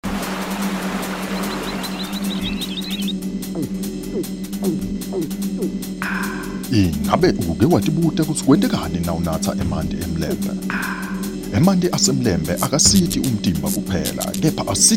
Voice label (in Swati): Siswati advert voice over; emlembe